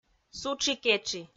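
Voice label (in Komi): "сутши-кетши", Komi, female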